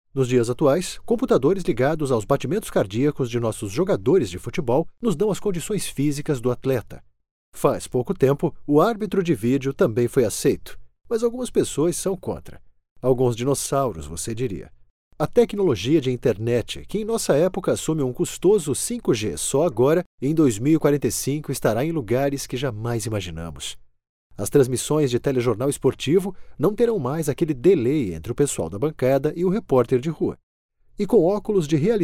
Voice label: portuguese brazilian narration